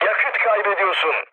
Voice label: tank damaged, mood med, speech tur; War Thunder